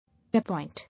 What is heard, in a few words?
"Appoint", English